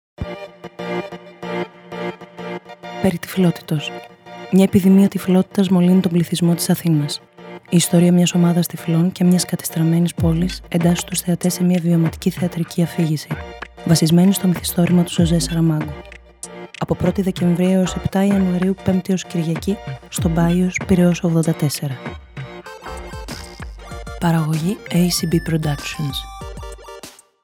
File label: RADIO SPOT; peri tyflotitos